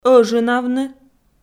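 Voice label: "ӧжынавны", Komi, female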